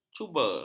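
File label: "cuba", Malay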